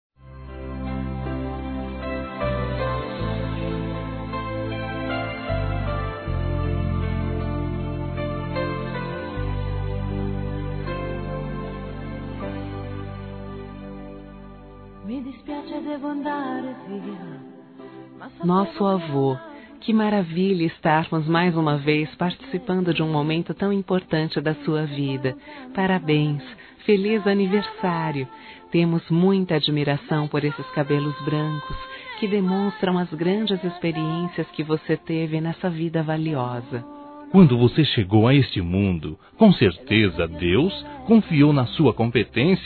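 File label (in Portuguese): Masculino, Telemensagens Aniversários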